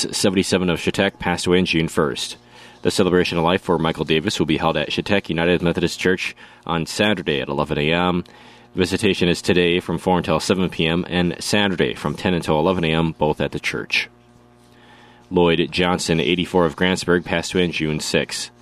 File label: Funeral Announcements